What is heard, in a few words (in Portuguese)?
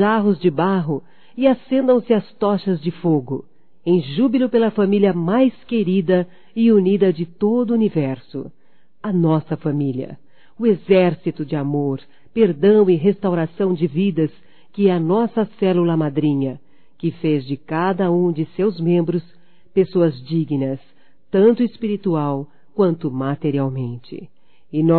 Bodas de Ouro Feminino; Bodas de casamento; Telemensagens 2017